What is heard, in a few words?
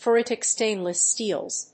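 "ferritic stainless steels", English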